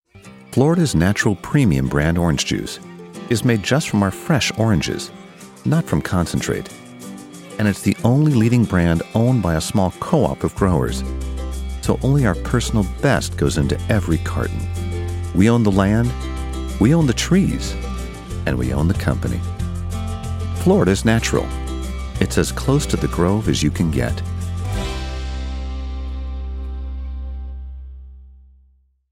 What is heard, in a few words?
commercial, english male voices american accent